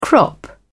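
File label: "crop", British English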